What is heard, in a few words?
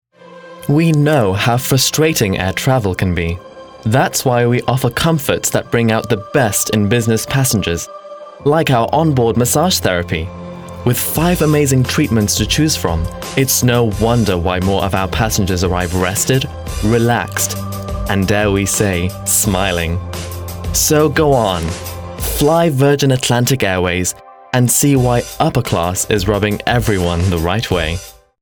English VO